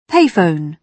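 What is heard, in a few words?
"pay phone", British English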